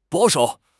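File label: "保守", Chinese; HSK6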